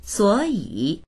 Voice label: "suo3yi3", voices; 所以; suǒyǐ; だから、ゆえに

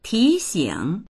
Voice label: "ti2xing3", voices; 提醒; 気づかせる、（忘れないように）注意する

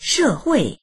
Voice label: "she4hui4", voices

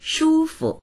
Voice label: "shu1fu", voices; 舒服; 心地よい、気持ちよい

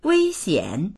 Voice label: "wei1xian3", voices; wēixiǎn; 危险; 危険である

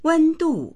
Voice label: "wen1du4", voices

